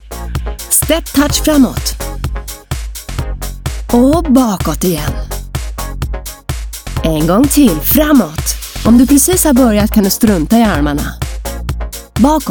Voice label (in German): schwedisch